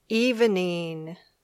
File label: "evening", English